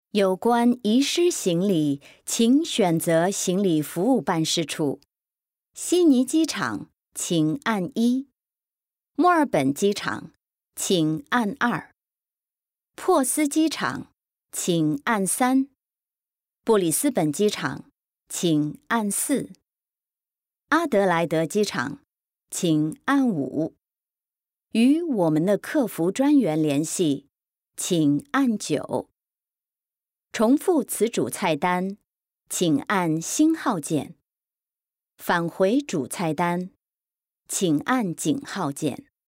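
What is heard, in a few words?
Mandarin China IVR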